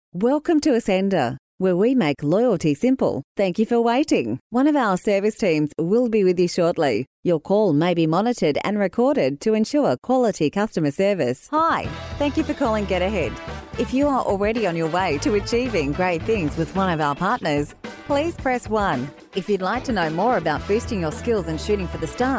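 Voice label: English Australian F IVR, accent of australia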